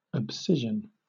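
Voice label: "abscission", British English; pronunciation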